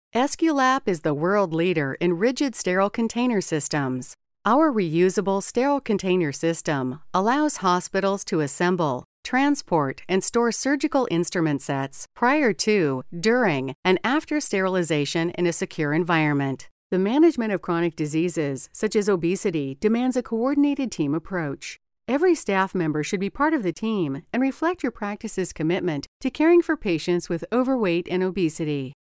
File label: Promotional Style